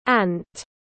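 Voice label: "ant", English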